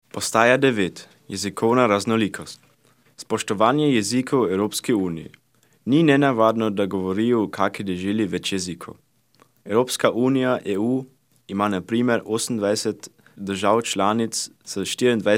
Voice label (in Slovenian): slovenski